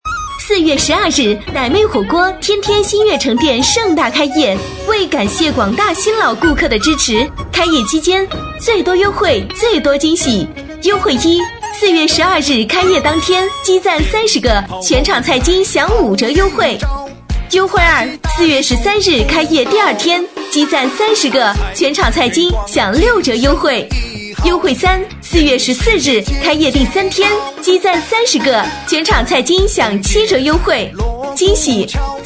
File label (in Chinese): 女声; 傣妹火锅开业